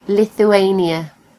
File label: "lithuania", en GB; gcompris